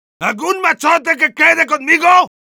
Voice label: help me defend, es; Heavy

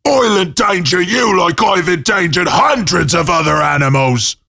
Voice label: battlecry

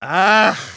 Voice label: Medic negative vocalization, de

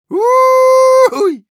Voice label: cheers, ru

